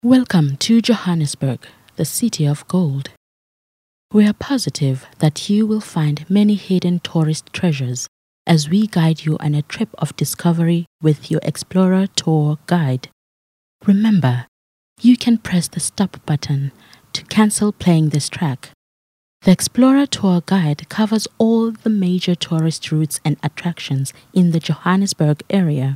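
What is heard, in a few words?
english South African female commercial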